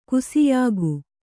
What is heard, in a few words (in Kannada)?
"ಗಾಸಿಯಾಗು", Kannada